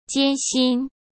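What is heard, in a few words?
"艰辛", Mandarin Chinese; chinese flashcards; hsk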